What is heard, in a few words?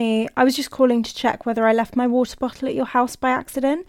Voice Message